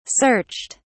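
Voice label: "searched", British English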